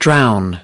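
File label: "drown", English word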